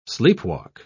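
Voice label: "sleepwalk", British English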